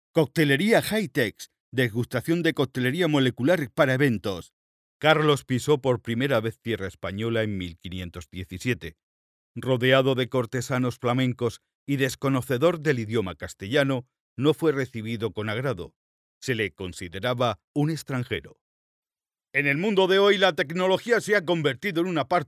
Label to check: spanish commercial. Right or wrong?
Right